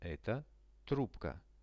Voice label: "eto trubka", Russian; Это трубка.; veshi